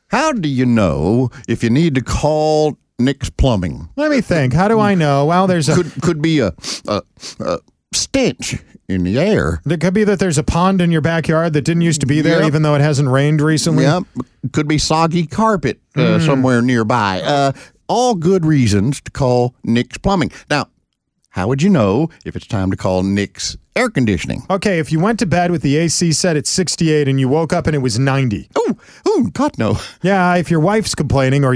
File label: RADIO AD; nicks plumbing radio ads